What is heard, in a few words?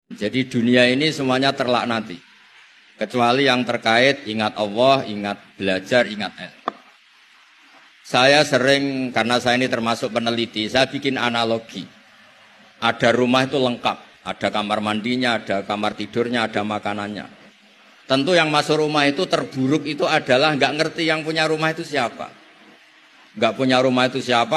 ngaji santri, vocals